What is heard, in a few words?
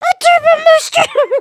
talk scared; emotes